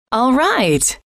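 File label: alright, female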